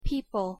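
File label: pronunciation "people", English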